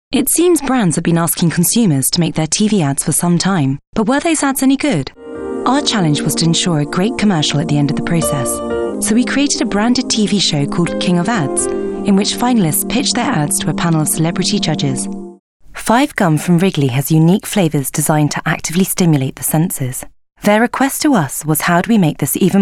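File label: British, narration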